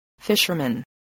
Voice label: "fisherman", spelling